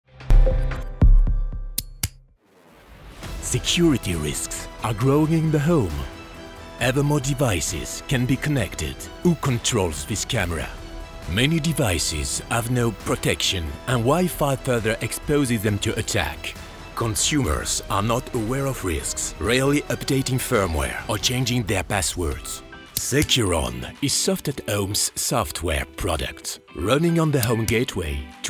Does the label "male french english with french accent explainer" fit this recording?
Yes